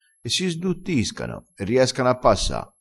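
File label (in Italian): "sduttissi", dialettale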